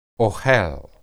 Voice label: "ohel", Hebrew